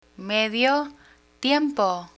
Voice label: medio tiempo, Spanish; vocabulary jobs; learn spanish